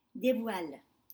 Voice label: "devoile", French; reveal